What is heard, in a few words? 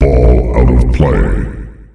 Ball Out Of Play, announcer male; Unreal Tournament; ut2004